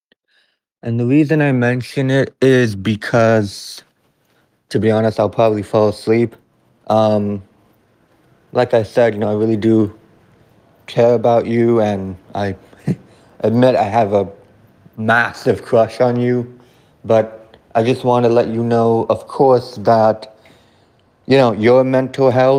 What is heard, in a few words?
voice message